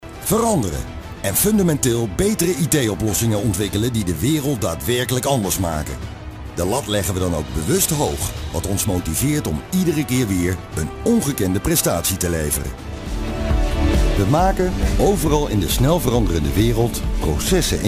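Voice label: Dutch male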